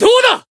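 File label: Voice finish, Japanese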